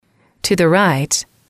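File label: "to the right", English, female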